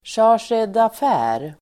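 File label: "chargé d'affaires", swedish pronunciation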